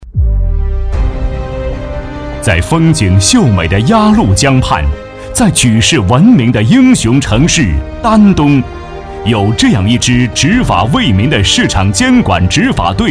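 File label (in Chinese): 男 高亢激昂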